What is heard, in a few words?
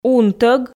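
"унтӧг", Komi, female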